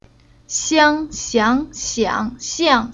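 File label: "Xiang", chinese syllable; Уроки фонетического блока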